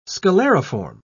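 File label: "scalariform", British English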